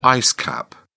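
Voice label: "ice cap", British English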